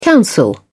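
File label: "counsel", British English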